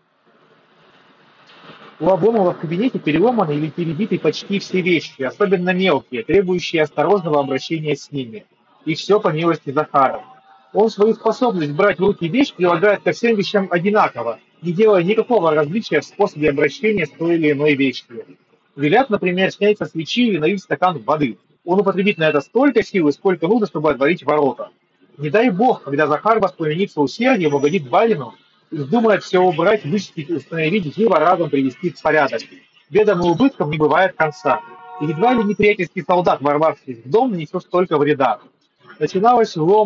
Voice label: Mic noise; Запись с микрофона гарнитуры; tecno freehear 1